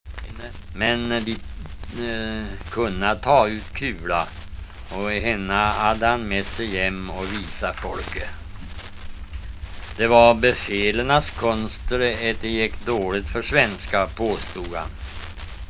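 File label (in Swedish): Riala Uppland, dialekter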